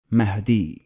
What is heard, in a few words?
"Mahdi", Arabic